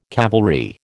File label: "cavalry", American